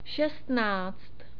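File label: "šestnáct", Czech; numbers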